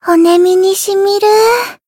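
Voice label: Voice healed, Japanese; yukino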